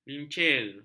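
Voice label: "lincir", Malay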